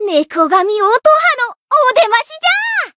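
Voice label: Voice variable chant, Japanese; otoha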